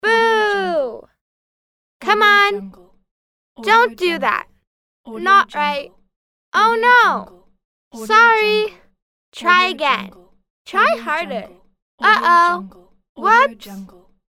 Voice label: teenage girl negative phrases

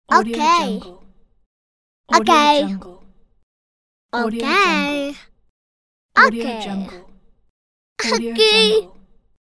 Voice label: little girl says okay

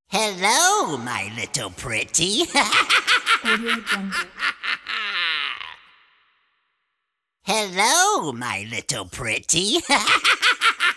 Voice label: evil hello my little pretty

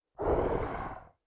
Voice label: robot vocals

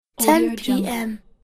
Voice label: boy says ten pm

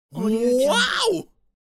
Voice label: male wow

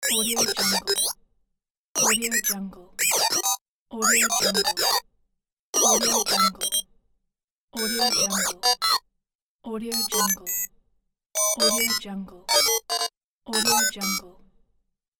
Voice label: robot talk

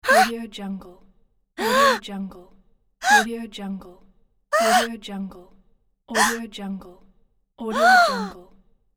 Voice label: woman surprise voice reaction

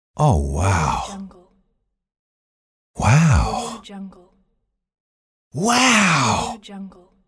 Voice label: male voice wow

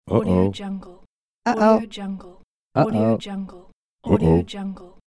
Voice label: uh oh

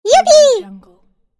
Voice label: cartoon voice yipee

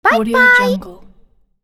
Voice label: "bye bye", girl voice

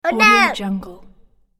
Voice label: toddler says oh no

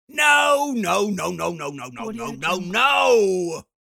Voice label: cartoon cantankerous man saying no

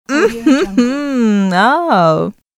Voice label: female astonished voice

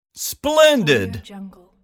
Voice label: male voice "splendid"

